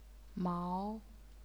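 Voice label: "mao", second tone, chinese pinyin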